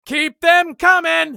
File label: battle cry; eft; usec